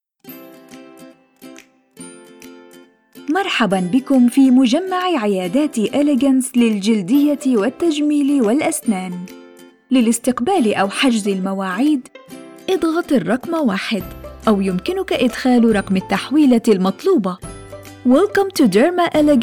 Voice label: Multilingual IVR On Hold Voicemail Greetings